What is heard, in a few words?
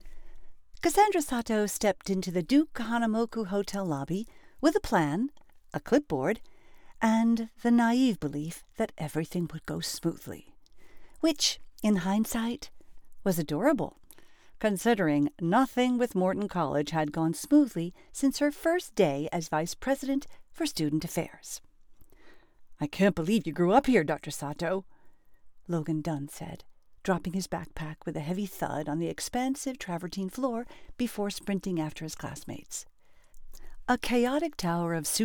Cozy Mystery, feminine voices